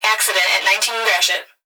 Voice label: Emergency Human Female Voice Fire Dispatch Accident At 19 And Gratiot; emergency effects